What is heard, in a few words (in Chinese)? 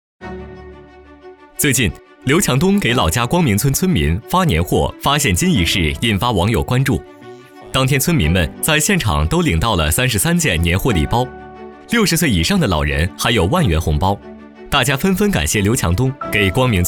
男 新闻感